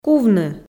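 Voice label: "кувны", Komi, female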